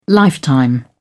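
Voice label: "lifetime", British English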